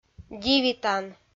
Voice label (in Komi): "дивитан", Komi